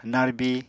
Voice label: "narbi", Apatani